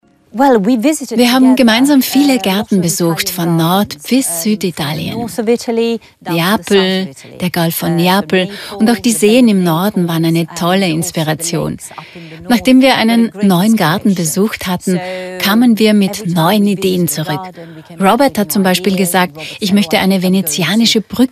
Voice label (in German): Sprecherdemo VoiceOver